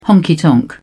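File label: "honky tonk", British English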